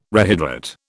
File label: "rehydrate", British